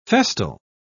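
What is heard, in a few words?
"festal", British English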